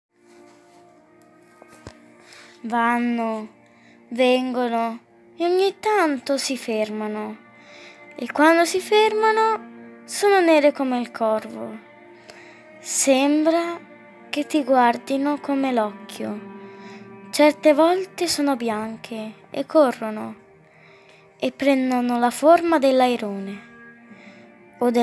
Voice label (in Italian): Nuvole recitata